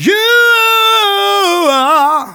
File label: Phrase Male; Vengeance Samples